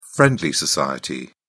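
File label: "friendly society", British English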